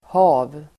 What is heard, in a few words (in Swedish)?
"hav", Swedish